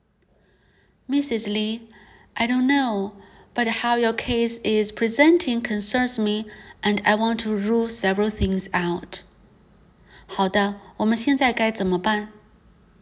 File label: Medical Certified Oral Mandarin Practice